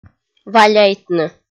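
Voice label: "валяйтны", Komi, female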